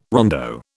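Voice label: "rondo", British English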